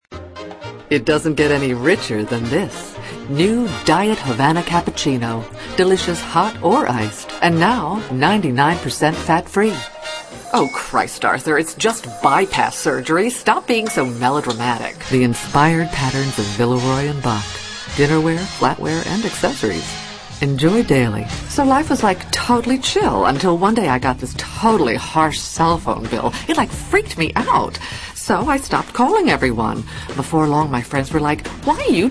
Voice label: natural character, female

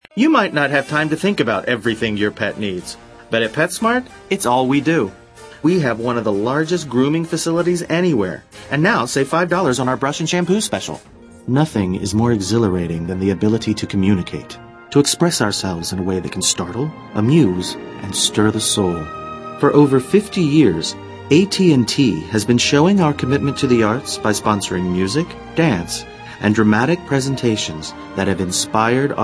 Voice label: male natural character

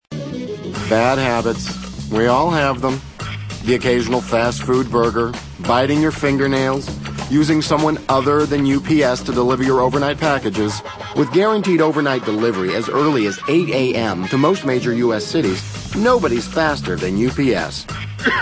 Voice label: male, natural character

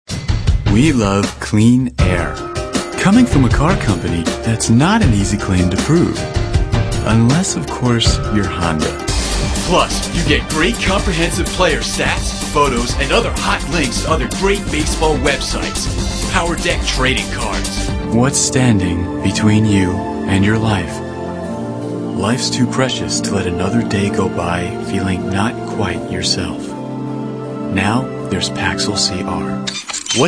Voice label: male mid30s mid40s warm

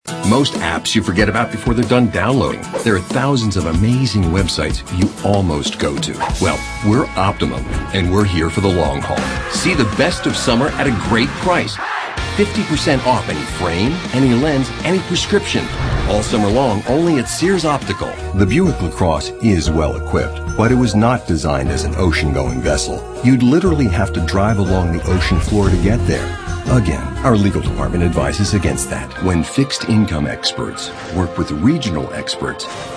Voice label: male, sophisticated

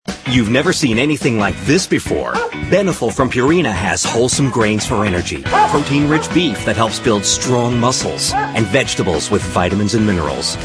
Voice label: male, mid30s mid40s solid

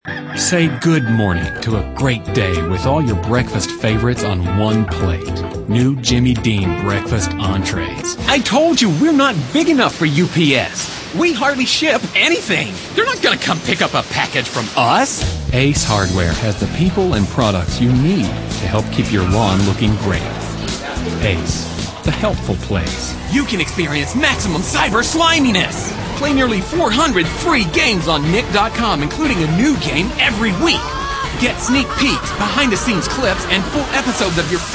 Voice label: male, natural character

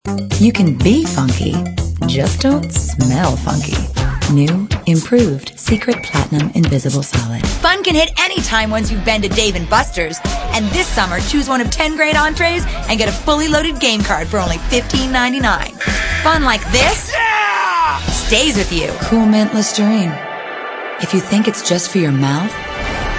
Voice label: female, contemp

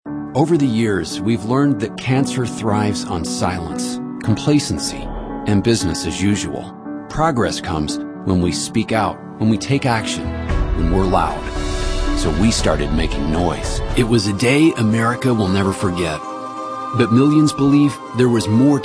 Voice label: male narration

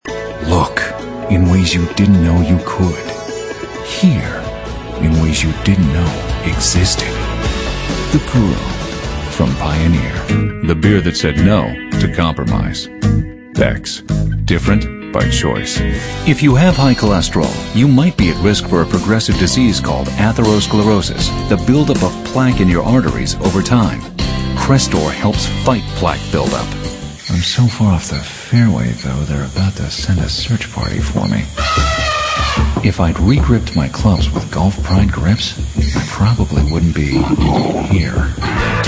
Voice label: male, mid30s mid40s solid

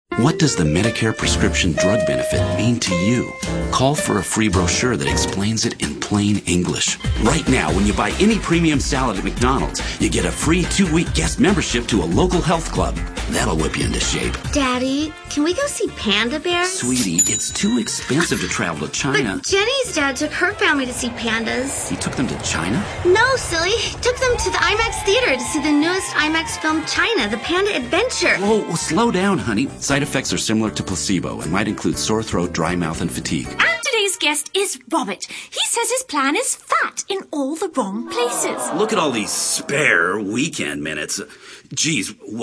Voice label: male, mid30s mid40s warm

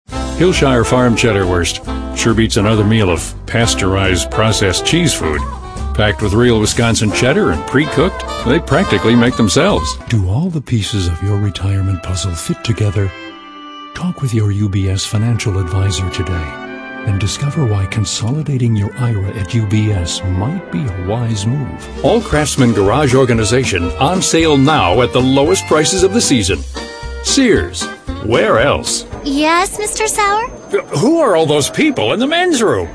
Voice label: male, natural character

